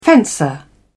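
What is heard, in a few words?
"fencer", English, British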